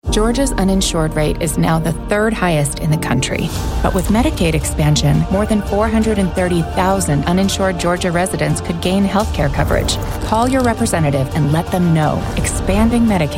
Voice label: political commercial, female voice over; Democrat